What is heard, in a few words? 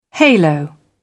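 "halo", British English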